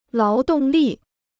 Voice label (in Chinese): "劳动力", Chinese